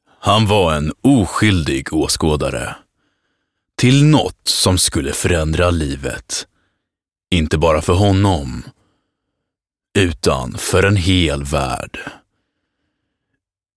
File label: Swedish Promo Trailer, Tv Trailer Promo Voice, male; Movie Trailers